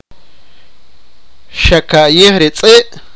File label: sipakapense; did you see the dog